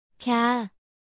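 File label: "갸", Korean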